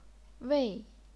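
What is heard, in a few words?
"wei", chinese pinyin, fourth tone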